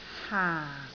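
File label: "haang4", Cantonese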